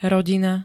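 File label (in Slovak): "rodina", Slovak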